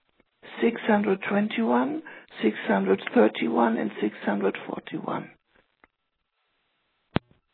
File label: Voicemail